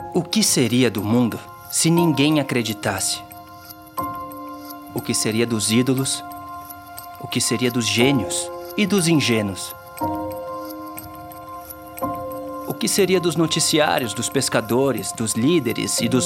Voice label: Demo motivacional